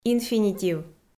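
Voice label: "инфинитив", Komi, female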